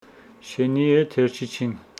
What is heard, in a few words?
Homshetsi the dogs are not running